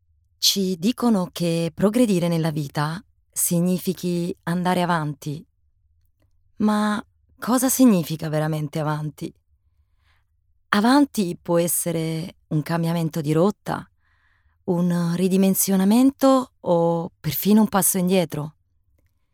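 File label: Italian, Commercial; GoLocalise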